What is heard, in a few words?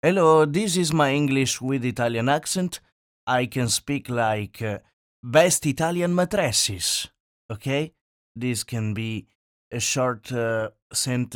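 Italian English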